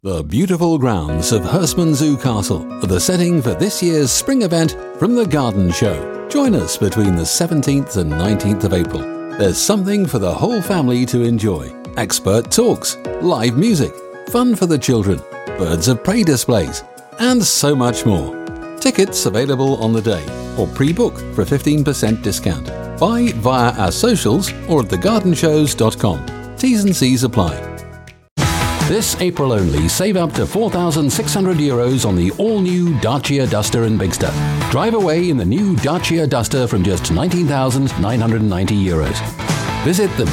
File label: commercial reel